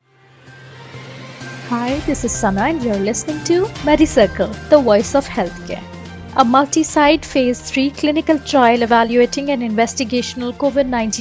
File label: english news